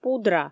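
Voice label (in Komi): "пудра", Komi, female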